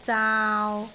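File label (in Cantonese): "zaau3", Cantonese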